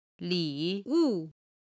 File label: "liwu", chinese